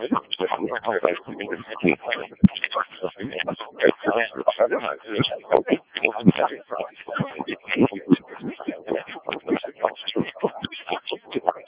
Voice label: decoded speech, 7177KHz